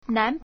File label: "nan2", Chinese